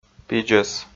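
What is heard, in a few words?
"пидзӧс", Komi, male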